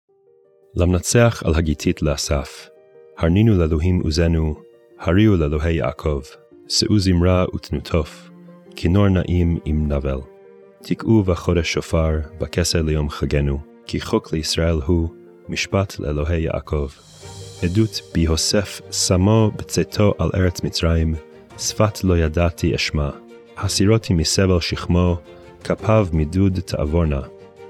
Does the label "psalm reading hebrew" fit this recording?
Yes